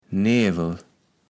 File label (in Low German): "Newel", Platt